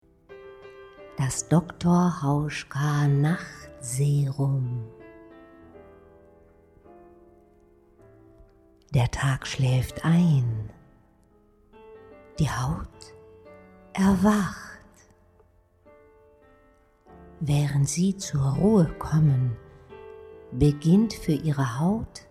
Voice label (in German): Werbung; Hauschka